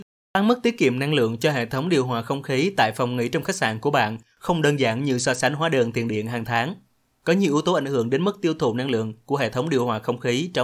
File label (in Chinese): 越南语男, 外语, 小语种, 年轻